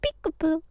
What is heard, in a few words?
cute peekaboo